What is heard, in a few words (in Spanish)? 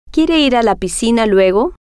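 quiere Ir A La Piscina Luego, spanish, female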